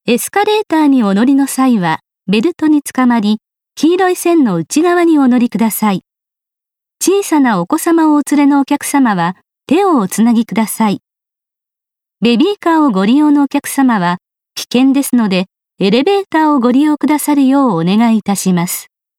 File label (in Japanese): 館内アナウンス